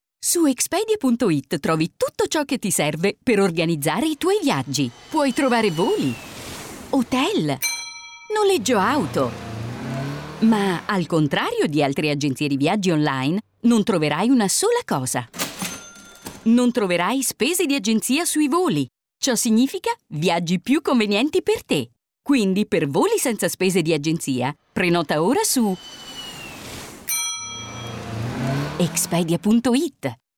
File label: Radio Upbeat youthful informative; Expedia